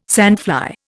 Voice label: "sandfly", Indian